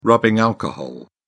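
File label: "rubbing alcohol", British English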